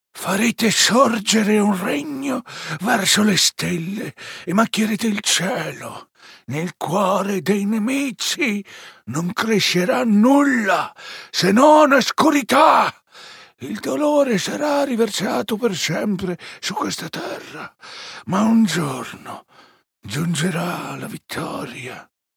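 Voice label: it character old oracle, Italian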